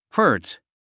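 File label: "hurt", English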